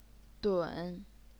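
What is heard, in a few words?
"dun", third tone, Chinese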